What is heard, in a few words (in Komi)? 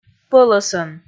"пӧлӧсӧн", Komi